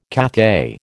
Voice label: "Cathay", American